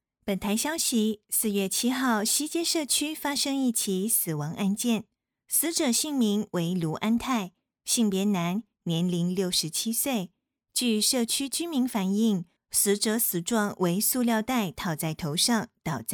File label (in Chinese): 台腔新闻